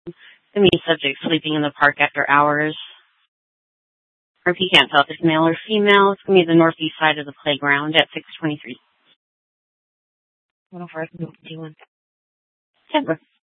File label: Police South Dispatch